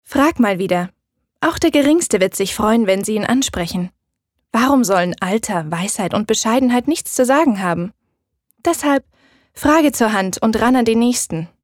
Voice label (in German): Werbung Neutral